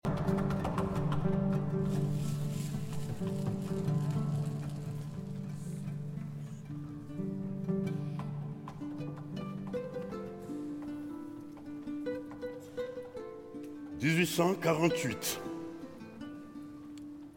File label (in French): Récit, Musique Kora et Valiha